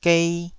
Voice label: "gei2", Taiwanese

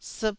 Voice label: "siip1", Taiwanese syllable

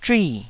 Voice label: "zhui4", taiwanese

